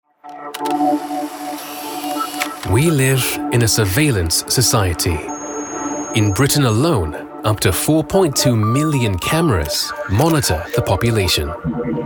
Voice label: narrative

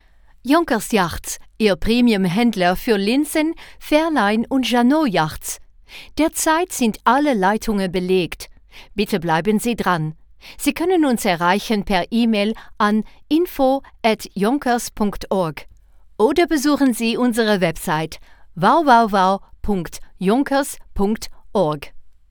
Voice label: Dutch IVR

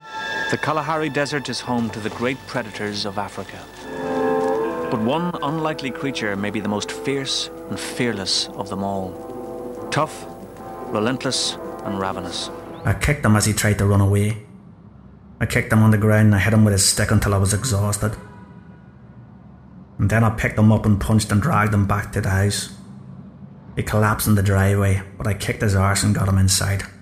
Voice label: Narration

